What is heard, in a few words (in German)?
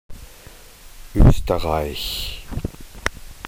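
"Oesterreich", German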